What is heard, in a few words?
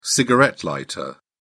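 "cigarette lighter", British English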